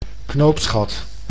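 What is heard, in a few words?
"knoopsgat", Dutch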